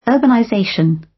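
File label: "urbanization", British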